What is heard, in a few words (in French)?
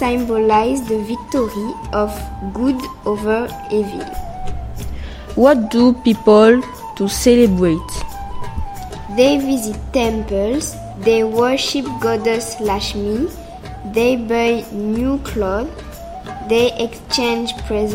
Podcast Diwali Version Anglaise